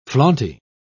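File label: "flaunty", British English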